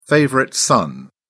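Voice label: "favorite son", British English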